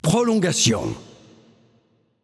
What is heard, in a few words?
Announcer French Match Overtime